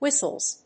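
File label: "Whistles", English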